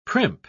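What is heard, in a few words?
"primp", British English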